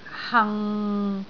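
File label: "hang6", Cantonese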